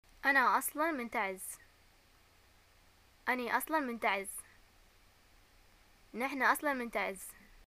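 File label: I am from, Yemeni arabic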